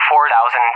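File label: "400", English speech; AWACS; Numbers; DCS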